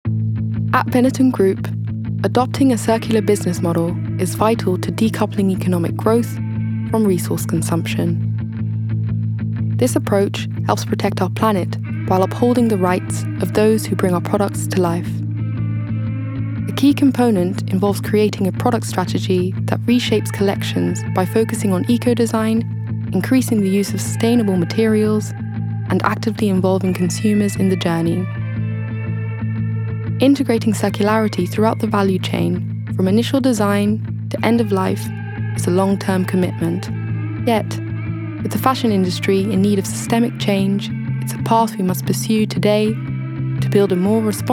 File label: Corporate UK; Benneton